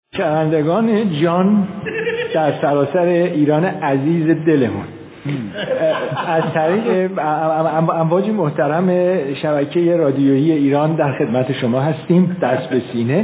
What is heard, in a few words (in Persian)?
تقلید صدای; محمد صالح اعلا